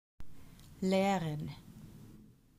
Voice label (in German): "lehren", German